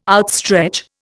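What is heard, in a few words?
"outstretch", Indian English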